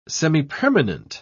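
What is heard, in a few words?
"semipermanent", English, British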